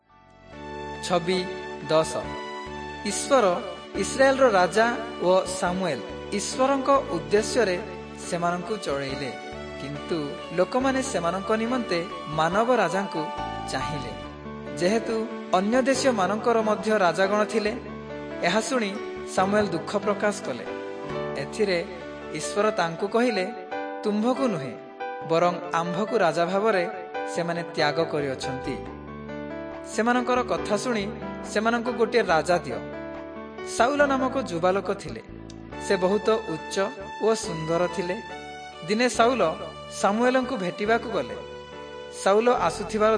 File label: southern oriya; servants of god